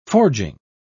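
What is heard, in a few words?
"forging", British English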